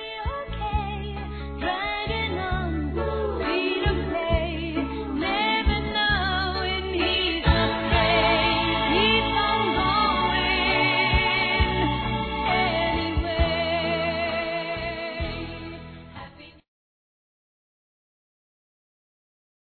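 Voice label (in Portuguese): Natal Para Amiga Feminino; Telemensagens; Natal e Ano novo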